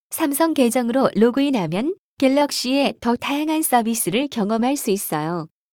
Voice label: samsung account, ko; Samsung Galaxy S10; SuwScriptPlayer

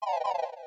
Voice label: lady clear; Samsung SGH-i617 BlackJack II

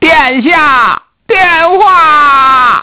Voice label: Man Voice; Innostream INNO 55

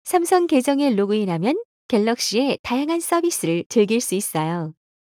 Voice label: samsung account, ko; Samsung Galaxy S10; SuwScriptPlayer

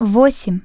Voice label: "0", Russian Human Voice Tone

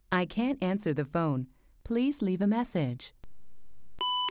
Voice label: English Answer, Voice Memo

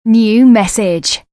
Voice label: New Message (UK), voice

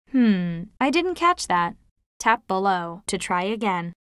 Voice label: wake up error en us; Samsung Galaxy S10; Bixby

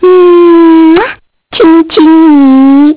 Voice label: Woman Voice; Innostream INNO 55

